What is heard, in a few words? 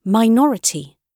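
"minority", British English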